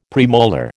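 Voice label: "premolar", American English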